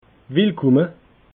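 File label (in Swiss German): "willkomme", Alsatian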